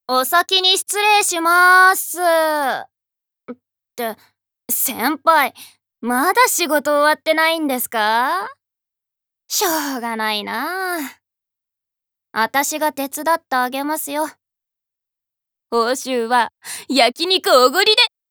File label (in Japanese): 20代女性